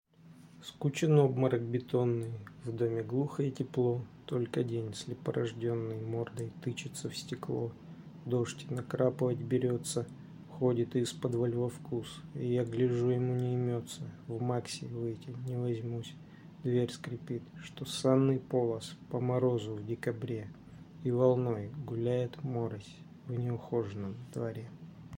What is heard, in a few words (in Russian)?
читает стихотворение; Скучен обморок бетонный